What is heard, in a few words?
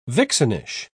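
"vixenish", British English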